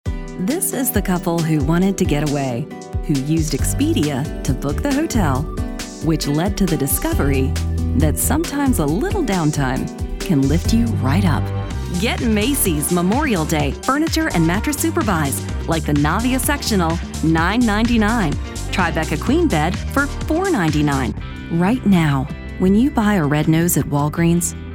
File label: Commercial VO